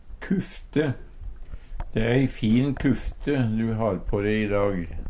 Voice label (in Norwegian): kufte, Numedalsmål